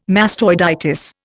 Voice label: "mastoiditis", Indian

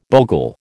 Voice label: "bogle", American

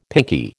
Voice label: "pinkie", American

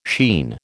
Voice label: "sheen", American

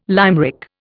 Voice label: "limerick", Indian

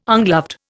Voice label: "ungloved", Indian

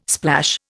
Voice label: "splash", Indian

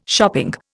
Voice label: "shopping", Indian

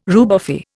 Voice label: "rubefy", Indian

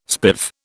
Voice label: "spiv", British

